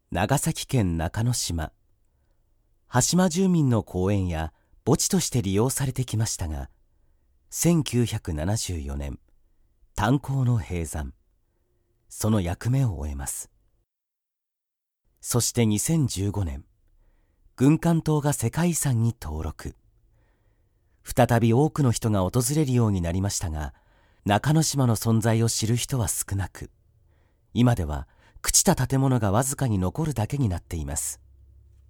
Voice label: male